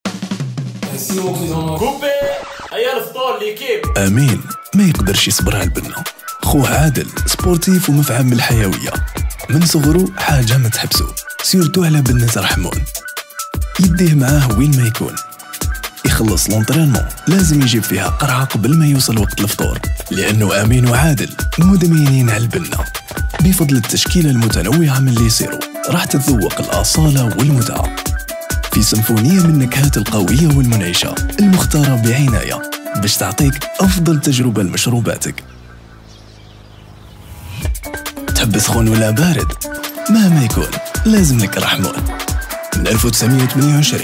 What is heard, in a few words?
ARABIC MALE commercial